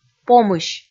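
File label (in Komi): "помысь", Komi, female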